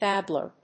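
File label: "babbler", English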